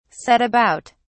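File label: "set about", British English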